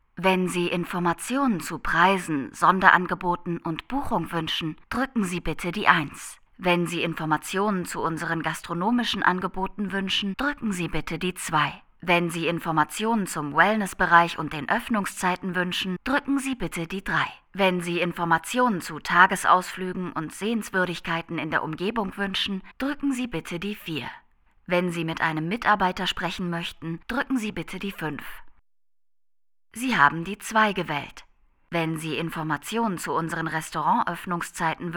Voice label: Telefonanlage

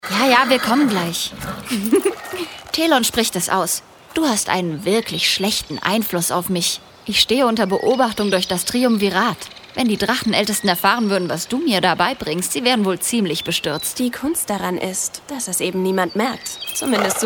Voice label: Unterhaltungen in normaler unbelasteter Bestimmung

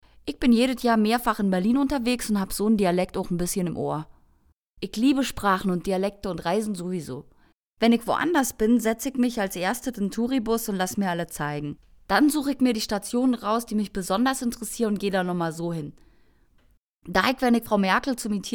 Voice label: Berlinerisch

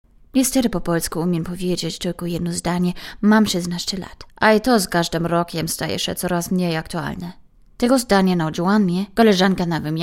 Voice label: Polnisch

